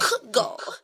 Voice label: "good god" fake; Voice; expletives